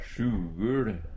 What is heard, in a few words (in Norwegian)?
"SjuguL", Numedalsmål; Særnamn (personnamn)